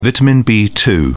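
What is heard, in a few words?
"vitamin b2", British English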